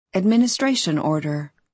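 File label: "administration", American English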